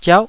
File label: "ziauh9", Taiwanese syllable